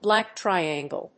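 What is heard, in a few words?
"black triangle", English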